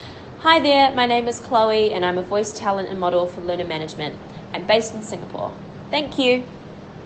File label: English